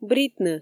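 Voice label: "бритны", Komi, female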